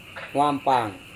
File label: "huampang", Serewen